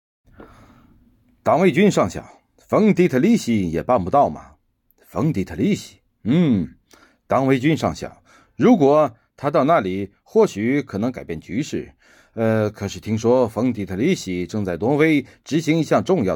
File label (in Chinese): 配音